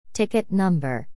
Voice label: ticket number, voice ar; queuing system; voice en